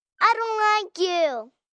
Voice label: I Dont Like You, Attitude, 1 girl 5 yrs